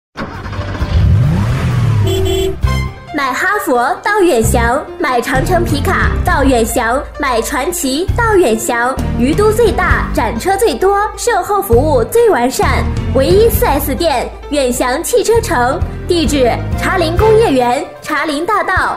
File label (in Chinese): 汽车城-广告配音, 广告